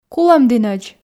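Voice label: "кулӧмдінӧдз", Komi, female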